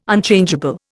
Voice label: "Unchangeable", Indian